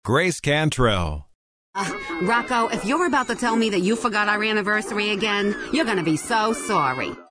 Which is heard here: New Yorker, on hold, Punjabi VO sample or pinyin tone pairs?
New Yorker